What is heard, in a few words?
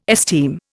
"esteem", Indian